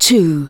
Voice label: "2", "TWO", SPOKEN; Techno Trance Essentials

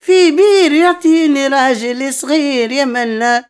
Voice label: OLD WOMAN, Arabian Traditions; USB Soundscan vol.43; AKAI

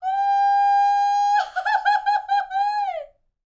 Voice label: MEX FEMALE, World Voices; ILIO; Vocal Planet VOL-5

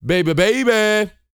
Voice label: GOOFY; Vocal Planet; R&B Dance

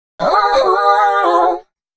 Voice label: female f105, adlips; Mutekki Media; Dance Ultra Voice VOL-1

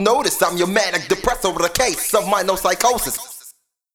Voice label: Notice, MCs, Hip-Hop; Sonic Foundry (Sony Creative Software); Hydroponic Hip-Hop

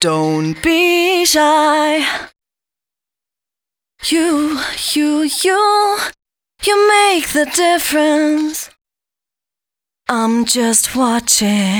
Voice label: female g#140, Dance Ultra Voice hooklines; Mutekki Media; 136-140 BPM; songpart1

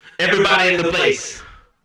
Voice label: Male FX Vocals; Houseworx

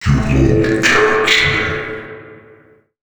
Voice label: male, voice; Mutekki Media; Dance Ultra Voice VOL-1

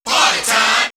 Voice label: "Partytime", house vocals; X-Static Goldmine

